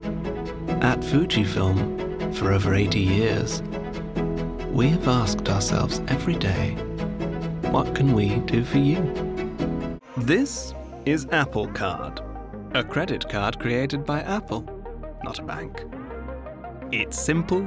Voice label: Commercial

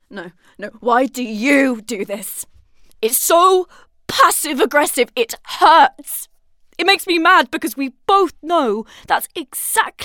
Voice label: audio drama

